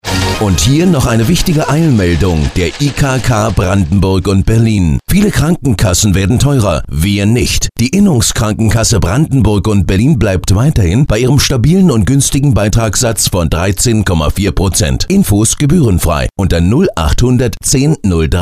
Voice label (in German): IKK Funkspot